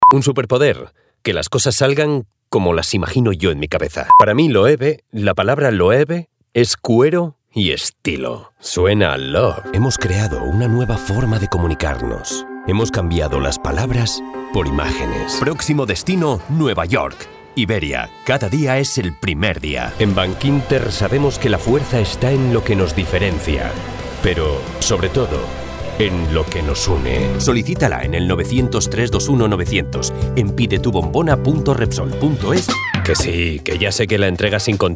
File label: SPANISH EURO MALE MID RANGE